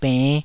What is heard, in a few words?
"benn5", taiwanese